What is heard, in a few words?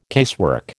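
"casework", American English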